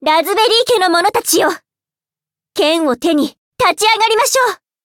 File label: upgrade, ja; cookierun